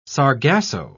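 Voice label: "sargasso", British English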